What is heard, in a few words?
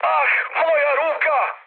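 Serbian damaged pilot mood high, speech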